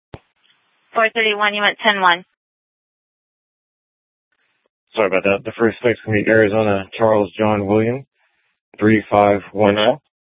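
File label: Sheriff, Parks, Sheriff radio scanner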